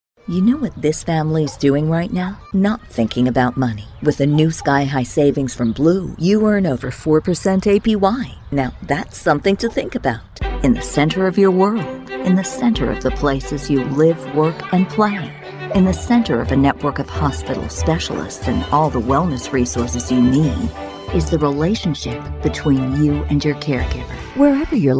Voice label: Commercial, english, USA